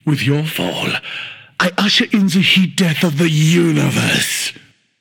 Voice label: Taunt Directed; smite; voicepack; olorun

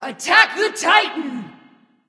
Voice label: vox attack; smite; serqet

